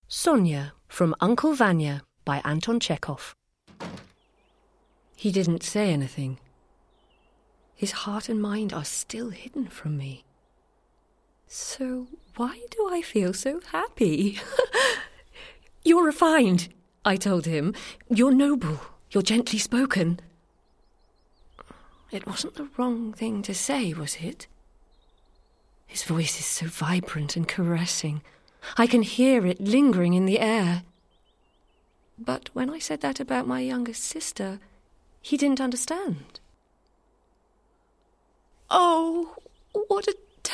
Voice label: Drama

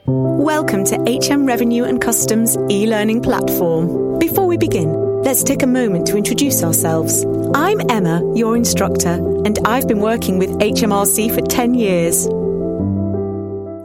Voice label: E-Leaning; HMRC

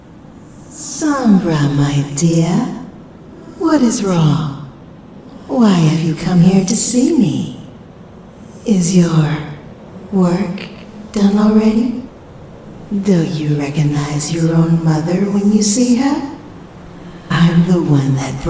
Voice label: Comic Audio Dub; MLP; Sombra Origin; Sombras Mother

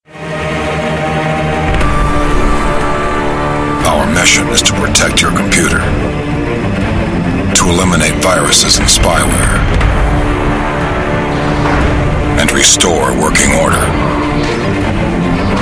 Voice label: trailer

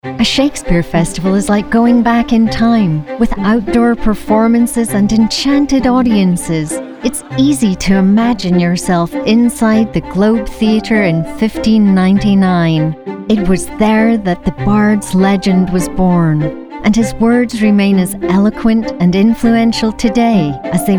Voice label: Narration

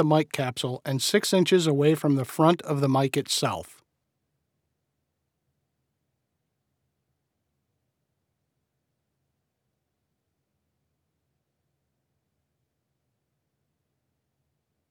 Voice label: Recording Studio RAW VO

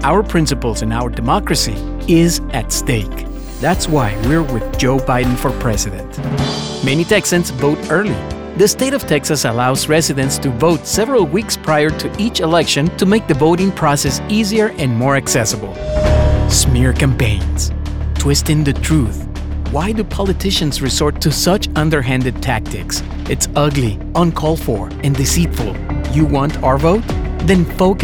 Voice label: English Political

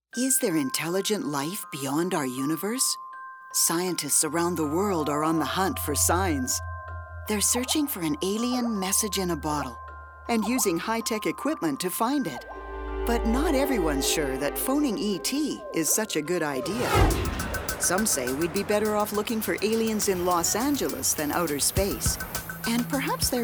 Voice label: broadcast narration

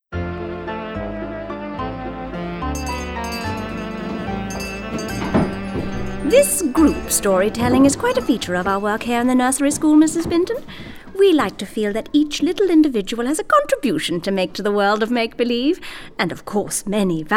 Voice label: Audio Book Reel

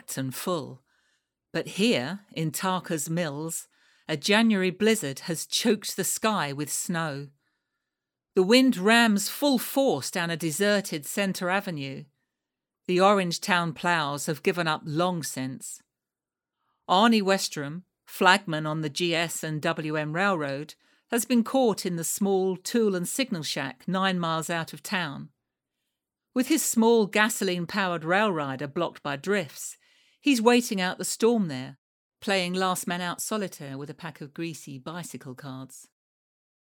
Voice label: Narrative

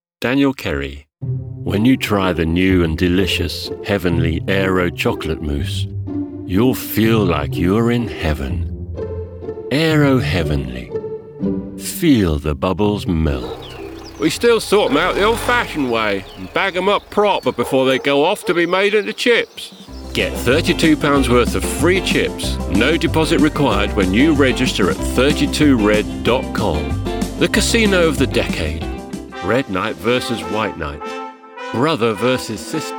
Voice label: commercial voice

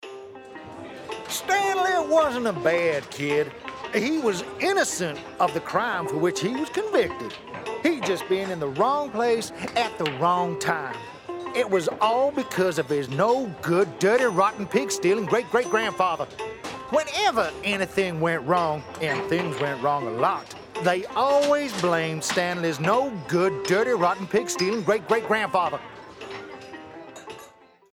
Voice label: character

